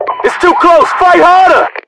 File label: tied, voiceovers, US; english